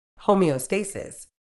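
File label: "homeostasis", British English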